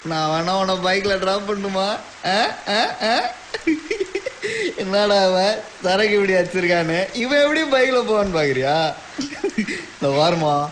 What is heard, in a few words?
Tamil Comedy